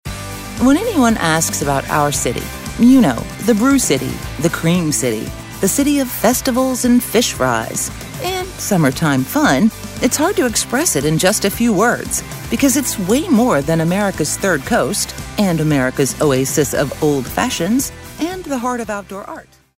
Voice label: narrative